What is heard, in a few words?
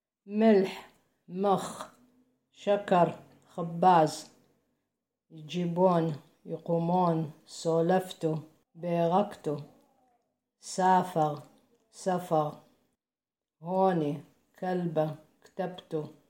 Baghdadi Judeo-Arabic, short vowels; Audio recording 0.1.2.2 to accompany section on short vowels